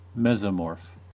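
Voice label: "mesomorph", American English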